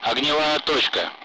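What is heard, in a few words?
Speech, Russian; Allied Flight; target-bunker; DCS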